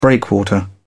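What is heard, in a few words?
"breakwater", British English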